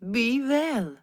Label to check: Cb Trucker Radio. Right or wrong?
Wrong